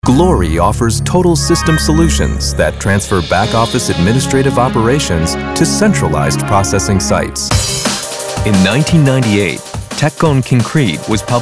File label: Narration